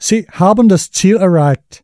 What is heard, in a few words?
British Man